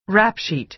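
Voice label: "rap sheet", American English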